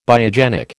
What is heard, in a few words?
"biogenic", American